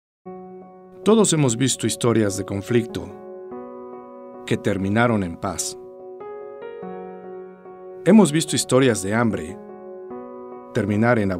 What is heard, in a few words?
Spring Campaign SPANISH Radio PSA